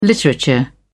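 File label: "literature", British English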